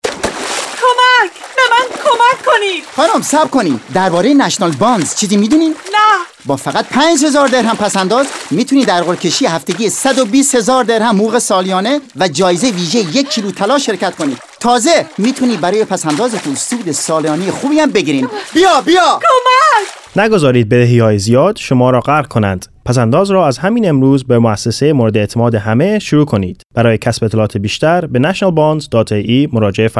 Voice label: Water Farsi